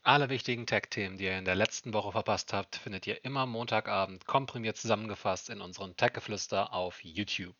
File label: Logitech G733 Voice Sample natural